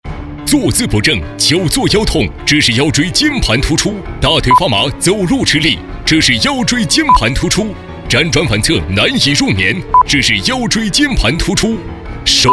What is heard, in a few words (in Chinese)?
广告 电购 电购科普篇, 男